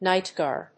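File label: "nightjar", English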